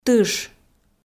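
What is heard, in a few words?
"тыш", Komi, female; audio dict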